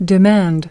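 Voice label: "demand", English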